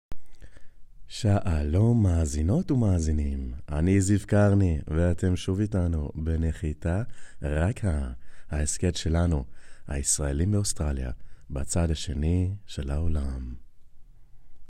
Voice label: Hebrew; Male; Teens-30s

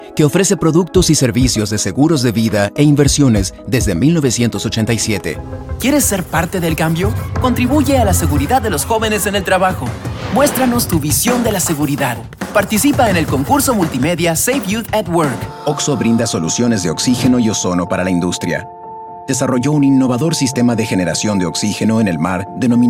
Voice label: Spanish; Male; 20s-30s

